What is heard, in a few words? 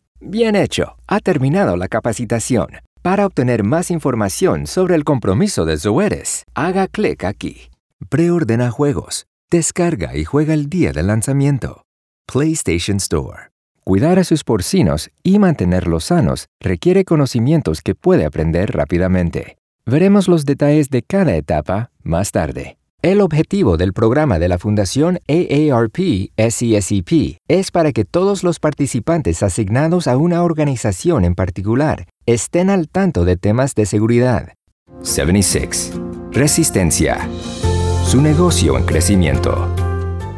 E-Learning Spanish